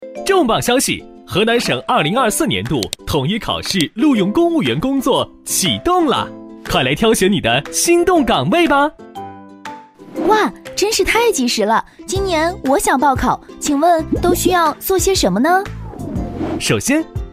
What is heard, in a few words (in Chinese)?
男 MG 公务员招考指南; 河南省2024录用